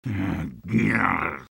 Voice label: negative vocalization; sniper; garrysmod; tf2-content-gmodcontent

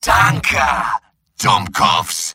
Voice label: jeers; medic; mvm

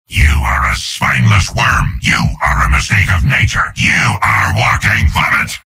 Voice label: soldier taunts; tf2; garrysmod; mvm

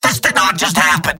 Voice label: scout jeers; mvm

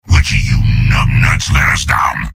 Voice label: soldier jeers; mvm

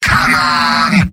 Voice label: battlecry, demoman; tf2; garrysmod; mvm

